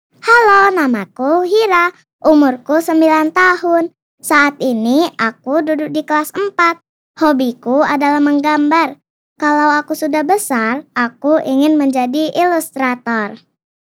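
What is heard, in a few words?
child INTRODUCTION, soft, gentle voice; INDONESIA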